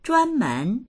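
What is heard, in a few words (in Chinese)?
"zhuan men" Chinese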